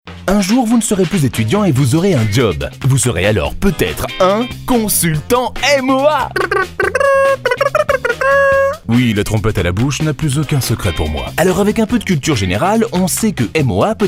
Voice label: french animation

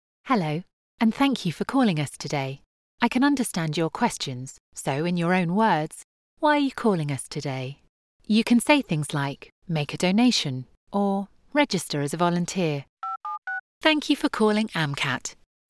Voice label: english british ivr, british voice actors

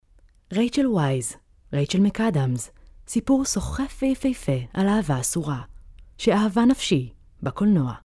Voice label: hebrew movie trailers

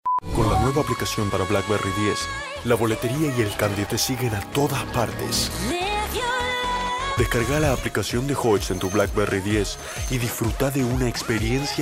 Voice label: spanish argentinian commercial

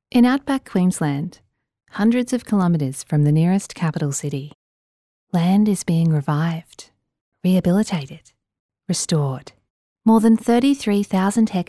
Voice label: English Australian documentaries

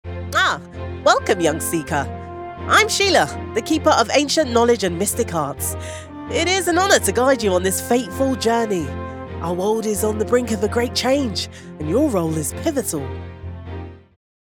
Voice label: english british animation; Kommerzielle Demo; voice actors

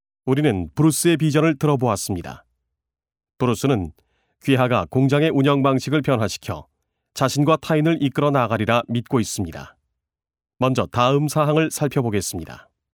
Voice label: korean training e-learning

